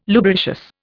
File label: "lubricious", Indian